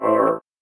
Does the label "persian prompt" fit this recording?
No